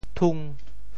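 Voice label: "thung5", Teochew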